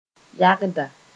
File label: "yagda", Moore